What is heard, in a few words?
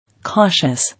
"Cautious", English